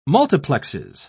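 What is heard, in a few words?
"multiplexes", English, British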